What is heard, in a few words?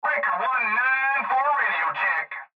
Cb Trucker Radio; Radio Voice; Blastwave